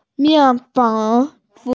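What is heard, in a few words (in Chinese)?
"mian4fen3", Mandarin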